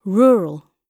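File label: "rural", British English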